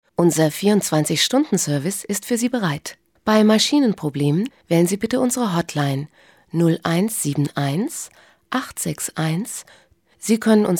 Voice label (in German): deutsch, Telefonansagen, weiblich